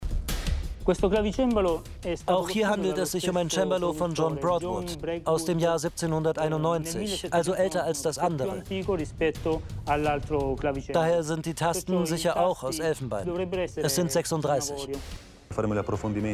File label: voice over border patrol italy; DMAX